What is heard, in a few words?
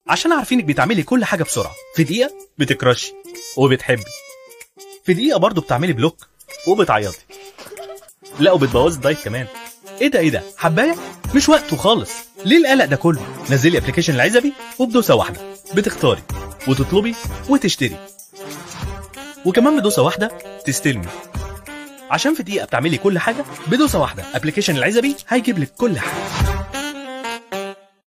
Commercial